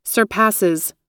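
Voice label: "surpasses", American English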